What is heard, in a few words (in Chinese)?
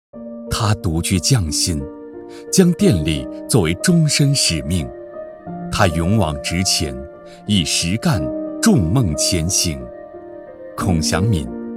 男 人物专题 大气 深沉 温暖 走心